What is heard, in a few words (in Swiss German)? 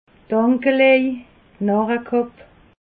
"akelej", alsace